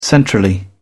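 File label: "centrally", British English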